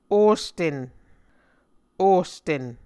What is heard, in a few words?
"osten", Muscogee